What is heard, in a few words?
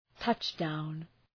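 "touch down", English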